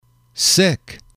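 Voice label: "sick", English, male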